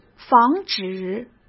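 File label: "防止", Mandarin Chinese; chinese flashcards; hsk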